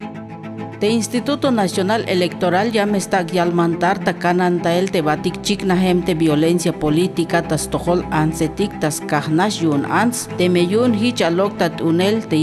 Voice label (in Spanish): TZELTAL